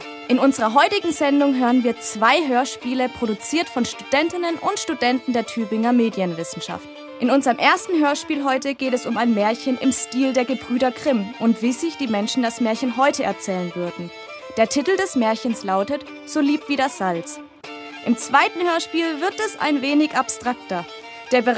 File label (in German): Hoerspiel Ton Teaser; Sendung 371